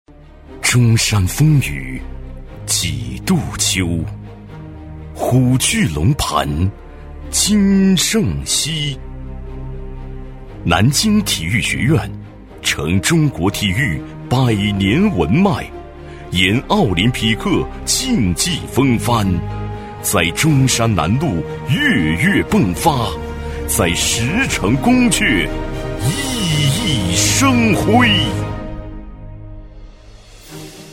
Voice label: 男 学校宣传 大气; 高端大气; 温和抒情; 南京体育大学; 男B12-高端大气 沉稳大气

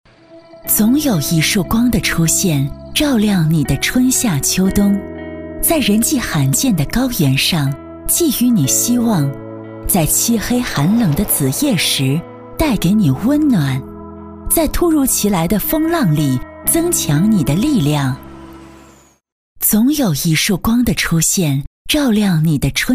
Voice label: 女-走心旁白-走心抒情; 点亮计划

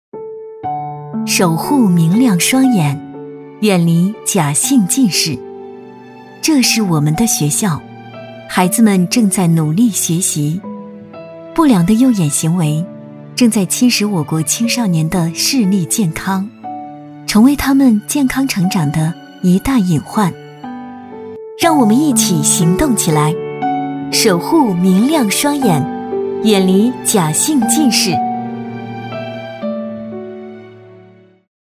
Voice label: 女-专题解说片【守护双眼 大气稳重】; 年轻甜美 质感磁性

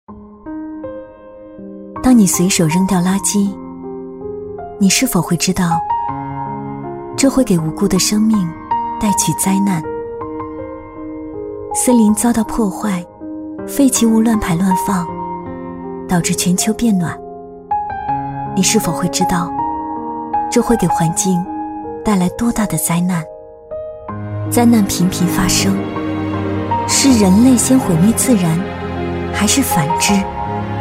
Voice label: 女-公益宣传片【保护环境 沉稳舒缓】; 温柔知性; 女B25-年轻甜美

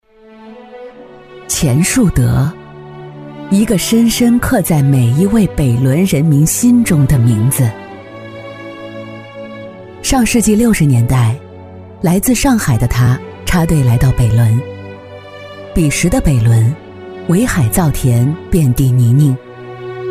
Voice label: 女 低沉讲述感 纪录片

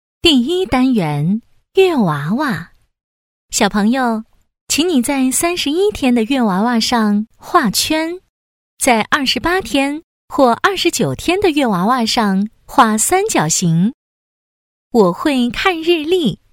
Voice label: 女 课件教学 温柔; 儿童思维数学

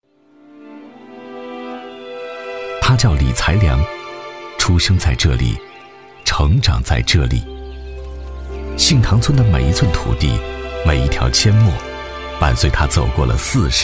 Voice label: 男 人物解说 悠悠述说; 基层干部

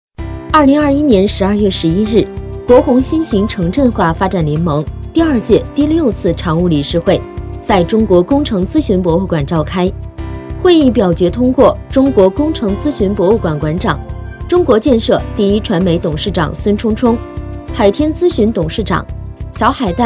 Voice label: 女, 新闻专题, 正式新闻感; 沉稳大气

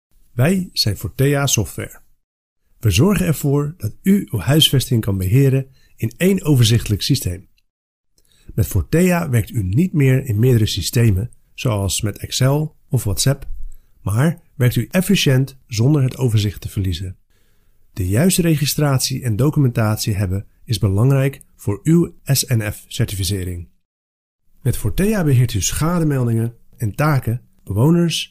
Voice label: 荷兰语男声

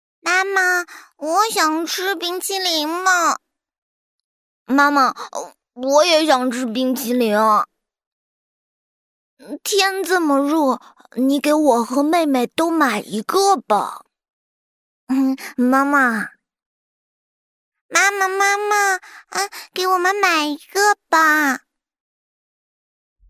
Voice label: 女, 人物角色, 女童, 男童, 妈妈, 老人

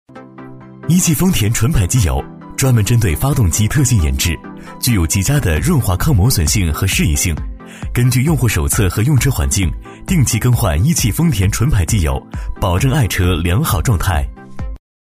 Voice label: 男 专题配音 年轻科技感; 大气沉稳; 高端大气

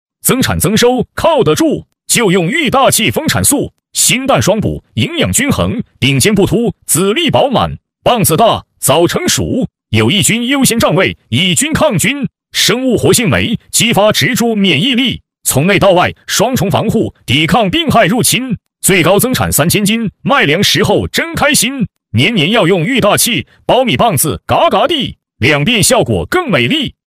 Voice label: 激情促销男; 农业丰产素

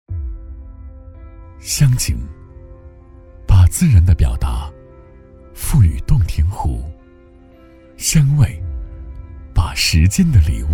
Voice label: 男 讲述 自然温馨宣传片

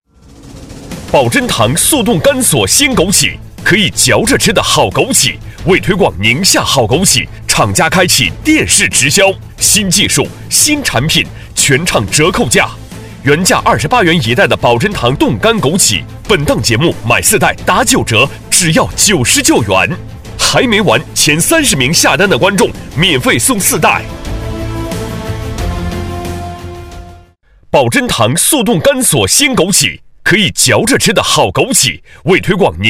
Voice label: 男 电视购物 激情有力度; 年轻激情; 大气浑厚; 宁夏好枸杞保振棠

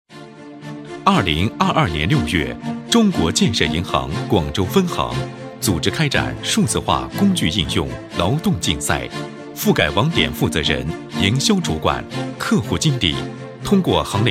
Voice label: 男 汇报专题; 低沉磁性; 沉稳大气

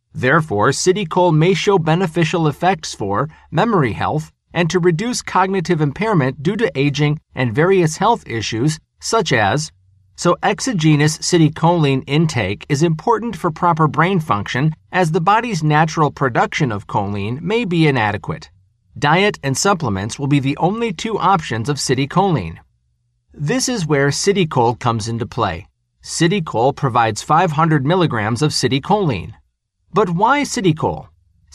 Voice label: 美式男声

